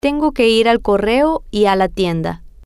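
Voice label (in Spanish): Tengo Que Ir Correo Tienda, spanish, female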